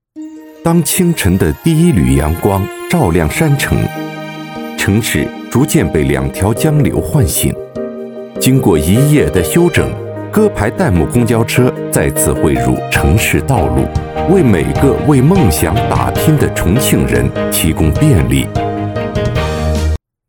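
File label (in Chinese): 男【企业纪录片 娓娓道来】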